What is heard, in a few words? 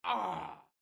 wizard death